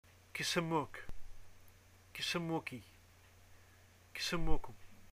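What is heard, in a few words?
what is ur name, Arabic, Algeria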